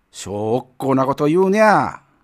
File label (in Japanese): man, okkou; hogen